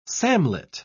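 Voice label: "samlet", British English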